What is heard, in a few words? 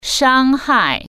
"hurt", Chinese